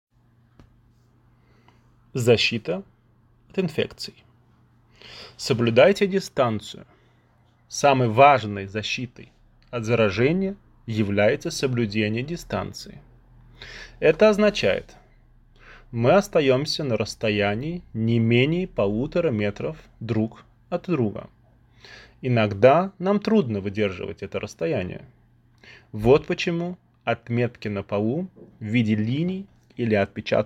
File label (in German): Russisch